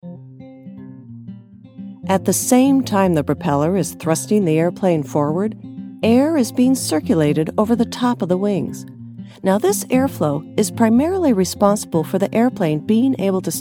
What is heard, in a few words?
Narrations and eLearning